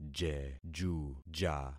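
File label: "jejuja", concatenated syllables; Affixing experiment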